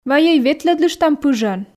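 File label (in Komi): вайӧй ветлӧдлыштам пыжӧн, Komi, female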